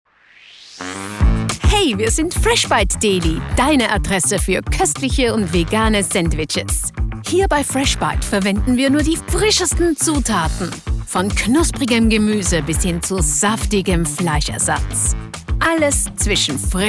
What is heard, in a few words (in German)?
Werbung; Freshbite